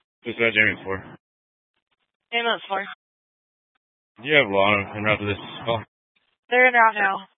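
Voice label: Fire Dispatch, scanner audio recording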